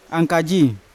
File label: "ankaji" coconut, Yawa